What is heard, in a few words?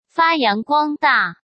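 "发扬光大", Mandarin Chinese; chinese-flashcards; hsk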